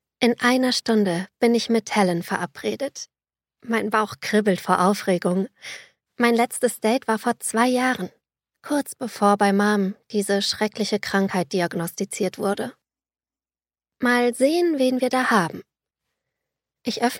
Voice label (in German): Hörbuch, Audiobook, German; voice; Hörbuch I Romance I Ice Crush